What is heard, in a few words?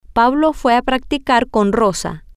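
Spanish, female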